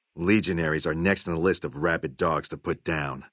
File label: male adult greeting; Fnv